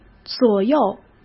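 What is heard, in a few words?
"左右", Mandarin Chinese; hsk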